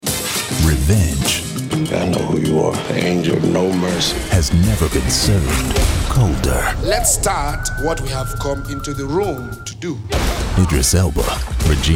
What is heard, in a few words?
trailer voice-over